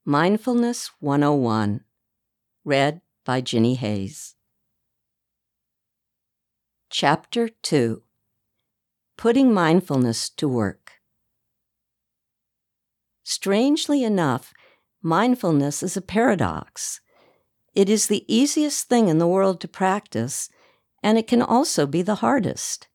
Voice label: audiobook nonfiction spirituality inspiration